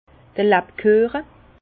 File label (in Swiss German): "labkueche", Bas Rhin; vin; pain d’ épices